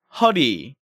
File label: "Heori", Korean